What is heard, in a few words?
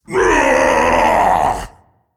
Demon Emote Attack